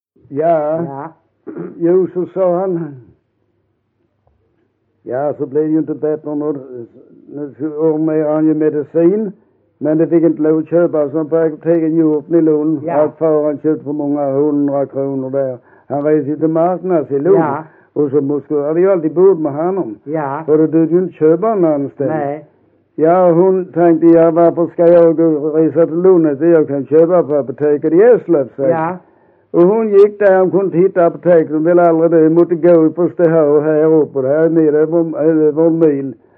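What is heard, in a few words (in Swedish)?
Vollsjö Skåne, dialekter; Folkminnen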